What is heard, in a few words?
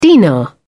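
"dinar", British English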